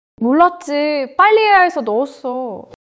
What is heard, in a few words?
neutral